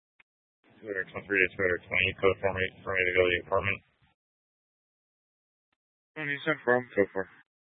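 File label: Tempe police department, scanner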